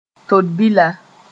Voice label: "todbila", moore